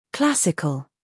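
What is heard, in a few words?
"humor", British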